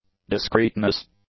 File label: "discreetness", English; nouns